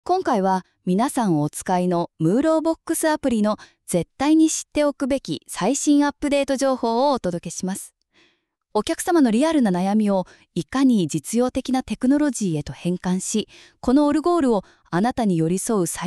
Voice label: podcast jp; Muro Box App 功能